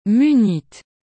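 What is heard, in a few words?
"munite", French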